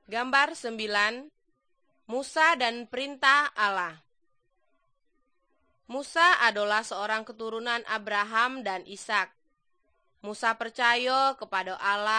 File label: Good News Malay Jambi